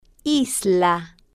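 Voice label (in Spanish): "isla", Spanish, female, slow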